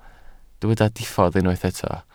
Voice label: "diffodd", Welsh